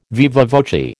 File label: "viva voce", American